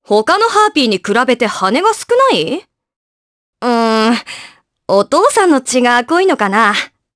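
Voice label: voice dia, voices heroes, Japanese; Naila; kingsraid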